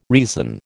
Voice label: "reason", American